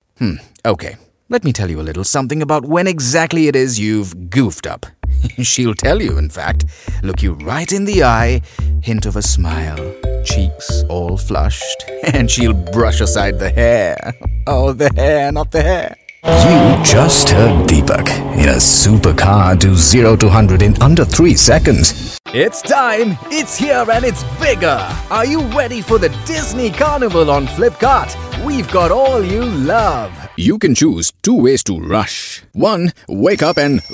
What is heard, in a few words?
Male English